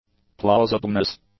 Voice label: "plausibleness", English

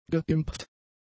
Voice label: "geimpft", German; adjectives

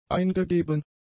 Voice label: "eingegeben", German; adjectives

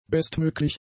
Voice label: "bestmöglich", German; adjectives

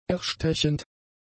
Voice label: "erstechend", German; adjectives

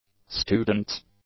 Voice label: "students", English; adjectives

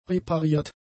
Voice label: "repariert", German; adjectives

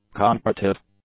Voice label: "concretive", English; adjectives

